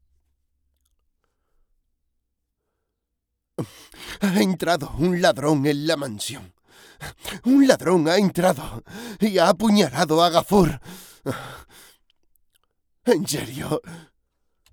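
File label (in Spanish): doblaje España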